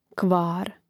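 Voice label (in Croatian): "kvar" Croatian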